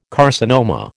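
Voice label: "carcinoma", American English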